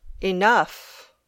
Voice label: "enough", English

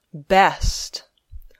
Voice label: "best", English

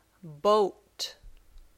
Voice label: "boat", English

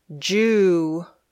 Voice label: "Jew", English

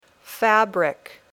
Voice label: "fabric", English

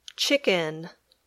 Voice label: "chicken", English

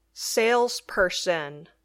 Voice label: "salesperson", English

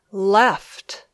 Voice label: "left", English

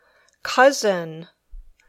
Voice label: "cousin", English

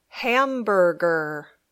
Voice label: "hamburger", English

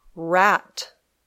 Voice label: "rat", English

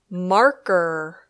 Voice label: "marker", English

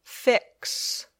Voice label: "fix", English

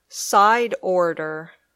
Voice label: "side order", English

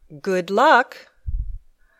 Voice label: "Good Luck", English

